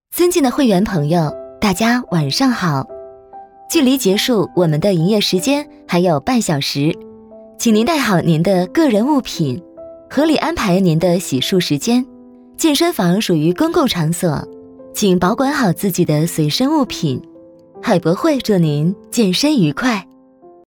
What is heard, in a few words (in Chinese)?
女 温馨提示 健身; 海博会